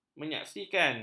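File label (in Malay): "menyaksikan", Malay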